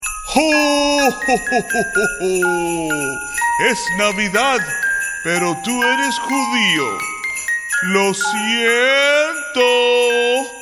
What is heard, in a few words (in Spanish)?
ho ho ho lo siento